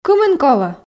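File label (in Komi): кымын колӧ, Komi, female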